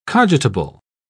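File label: "cogitable", British English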